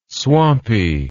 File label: "swampy", English word